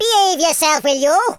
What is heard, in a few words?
coward, Welsh; speech